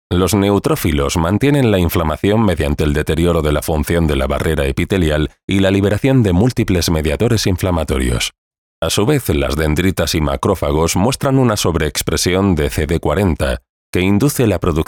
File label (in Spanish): voz masculina grave